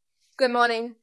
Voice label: Voice Acting es F